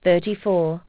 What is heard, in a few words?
aus, aussie prompts